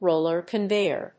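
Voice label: "ROLLER CONVEYOR", English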